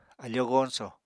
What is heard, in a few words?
"afóo goñsò", Apatani